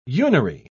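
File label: "unary", British English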